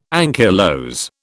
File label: "ankylose", British